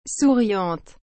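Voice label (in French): "souriante", French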